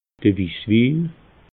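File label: "wiss win", Alsatian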